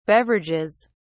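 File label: "beverages", British English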